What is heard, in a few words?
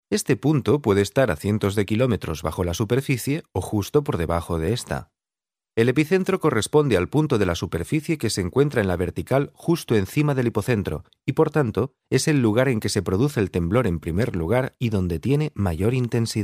spanish male spanish narration